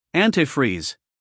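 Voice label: "antifreeze", American English, male; everyone-can-use-english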